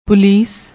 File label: "polees", Punjabi; ਪੁਲੀਸ